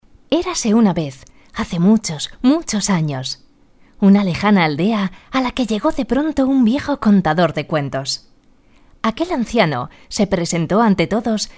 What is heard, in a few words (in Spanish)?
Locucion Web; Escucha la leyenda; El mejor libro de cuentos infantiles